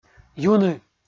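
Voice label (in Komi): "юны" Komi, male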